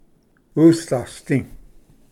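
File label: "usdasdi", Cherokee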